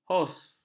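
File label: "hos", Malay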